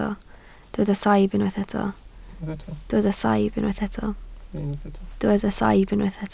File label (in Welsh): "saib", Welsh